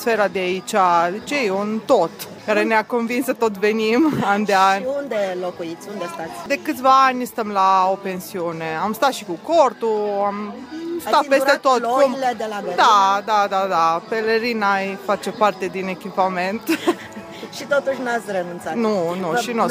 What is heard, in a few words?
vox jazz